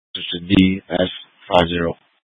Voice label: Fire Dispatch